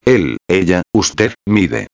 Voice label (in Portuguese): "mide", espanhol